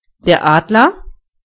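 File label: eagle, German voices words; gcompris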